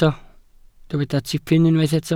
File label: "tipyn", Welsh